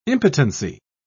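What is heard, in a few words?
"impotency", British English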